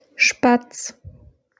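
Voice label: "Spatz", German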